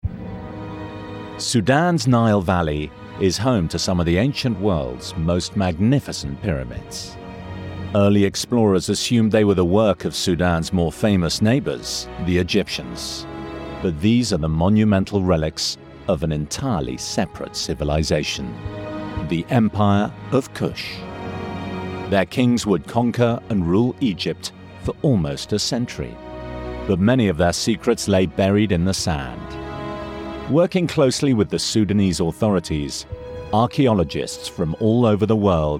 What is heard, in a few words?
Documentary